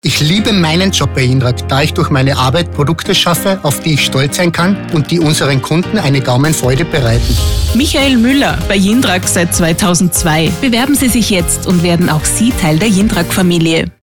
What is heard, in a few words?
SPOT